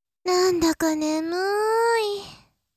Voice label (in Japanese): onnanoko